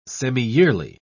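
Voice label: "semiyearly", English, British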